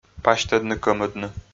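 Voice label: "пасьтӧдны-кӧмӧдны", Komi, male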